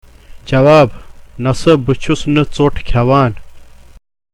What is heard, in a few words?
Spoken Kashmiri, Question: Are you eating bread?; Reply: No, I am not eating bread.